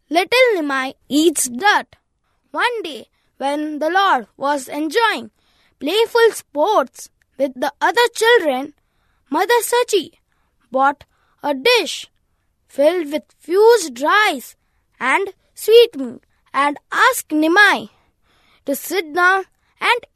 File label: Drama Scene Without Music, English; Golden Avtaar Drama; Gopals Studio